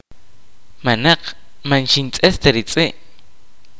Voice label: kaqchikel; no I did not see the dog